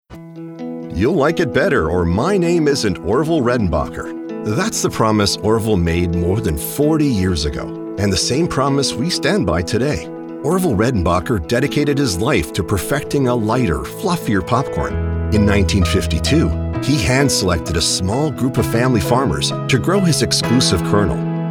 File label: Corporate; ORVILLE REDENBACHER